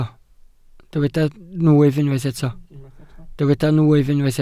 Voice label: "nwyf", welsh